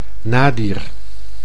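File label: "nadir", Dutch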